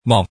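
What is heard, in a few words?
"mòng", Hakka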